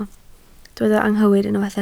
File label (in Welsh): "anghywir", Welsh